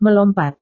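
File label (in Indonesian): "melompat", Indonesian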